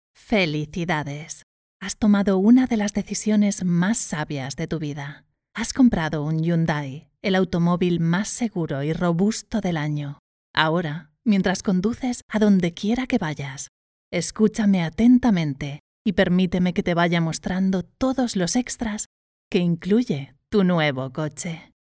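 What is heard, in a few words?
Spanish Castilian Female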